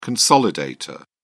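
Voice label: "consolidator", English, British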